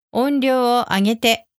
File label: music Volume up, japanese alexa commands